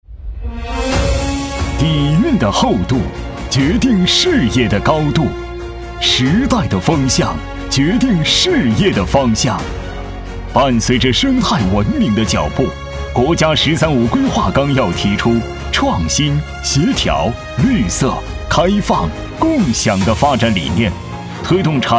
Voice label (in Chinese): 男,激昂高亢; 河北交科集团